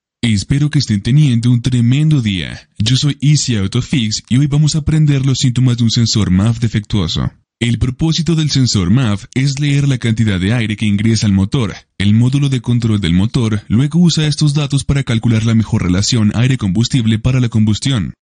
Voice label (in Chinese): 西班牙男声